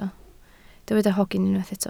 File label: "hogyn", Welsh